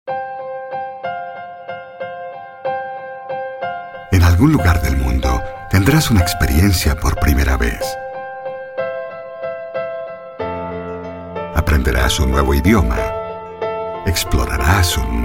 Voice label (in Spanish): locutor colombiano